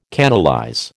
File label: "canalize", American